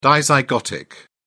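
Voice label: "dizygotic", British English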